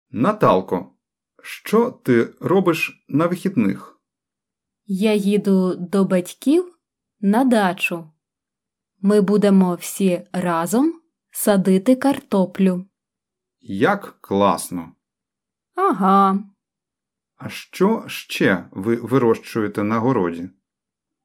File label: Dialogue Slow